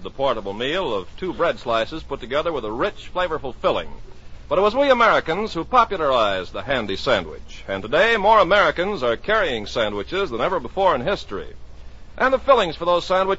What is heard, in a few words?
Commercial Kraft Cheese Spreads